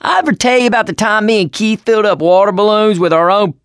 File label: stories, survivor voice; ellis; Left 4 Dead 2; mechanic